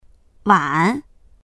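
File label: "wan3", Mandarin Chinese; 碗; 椀、茶碗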